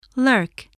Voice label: "lurk", spelling voice

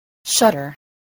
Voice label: "shutter", English

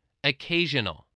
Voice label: "occasional", voice, spelling

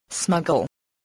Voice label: "smuggle", voice, spelling

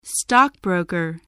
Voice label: "stockbroker", voice

